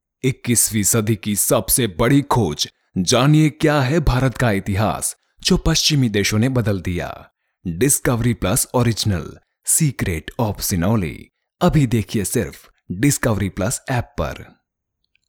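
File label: Hindi, male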